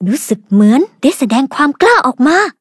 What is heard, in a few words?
level up, th; cookie run